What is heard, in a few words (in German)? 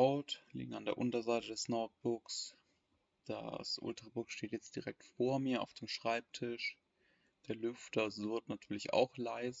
MateBook X Pro Test Mikrofon; Huawei